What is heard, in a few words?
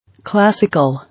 "Classical", English